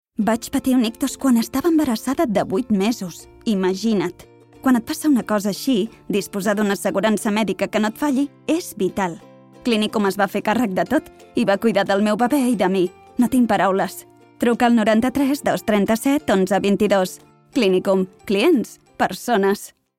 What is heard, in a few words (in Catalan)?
Catala; Clinicum